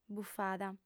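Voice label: "bufada", Sardinian, female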